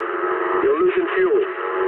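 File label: English tank damaged mood low; speech; War Thunder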